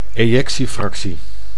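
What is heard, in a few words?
"ejectiefractie", Dutch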